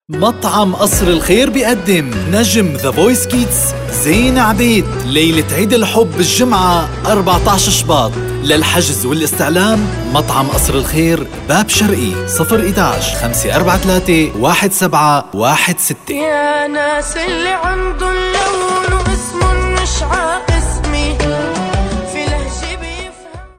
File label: arabic podcasts